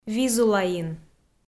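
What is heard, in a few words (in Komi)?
"визулаин", Komi, female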